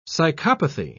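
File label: "psychopathy", British English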